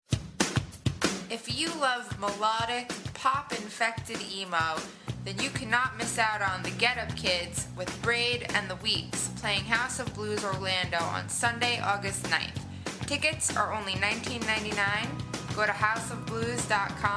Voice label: Commercial; HOB